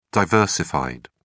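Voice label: "diversified", British English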